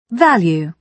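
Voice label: "value", British English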